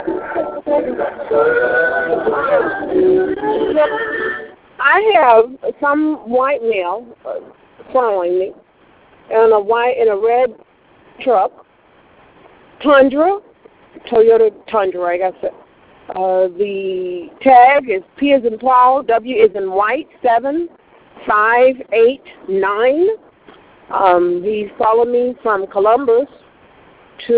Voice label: voice message